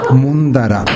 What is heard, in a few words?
"mondara", minamiyamagata